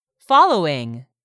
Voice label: "following", English; 3000 words

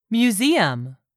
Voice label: "museum", English; 3000 words

